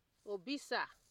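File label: "obisa", Nigerian Pidgin, female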